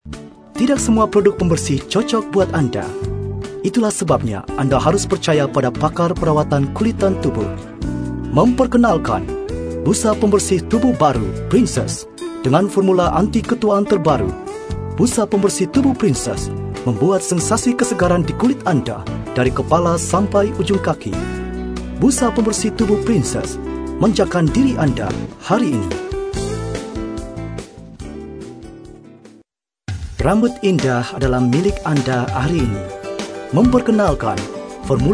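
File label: Bahasa Indonesia male voice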